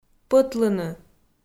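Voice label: "пӧтлыны", Komi, female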